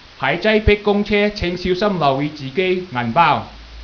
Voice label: Pickpocket Chinese; bayarea; SFMuni